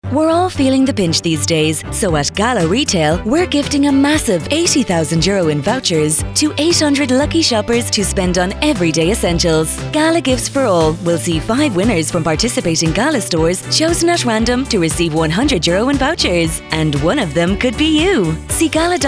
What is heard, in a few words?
Female Vo